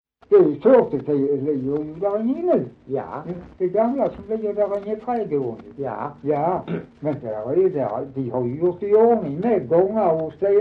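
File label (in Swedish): Dalby Skåne, dialekter; Folkminnen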